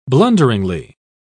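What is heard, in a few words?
"blunderingly", British English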